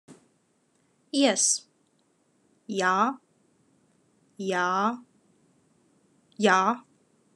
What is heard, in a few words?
Khakas Yes